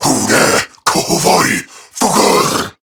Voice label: Cover Me Corrupted Grineer Male